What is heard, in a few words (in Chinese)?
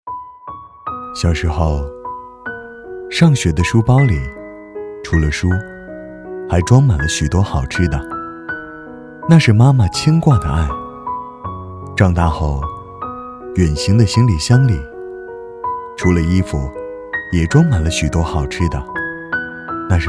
男 抒情广告; 爱华仕箱包